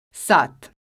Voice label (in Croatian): "Sat", croatian